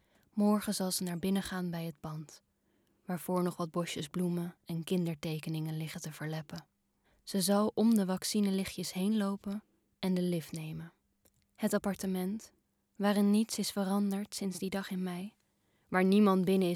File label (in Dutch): THRILLERBOEK